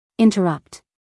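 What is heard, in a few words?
"interrupt", American English, female; everyone-can-use-english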